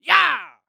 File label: Human Cowboy "Yah"